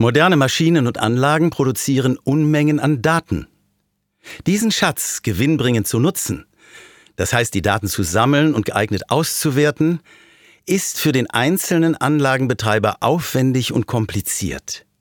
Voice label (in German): Werbung